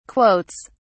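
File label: "quotes", English, uk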